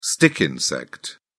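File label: "stick insect", British English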